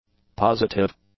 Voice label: "positive", english; adjectives